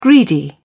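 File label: "greedy", British English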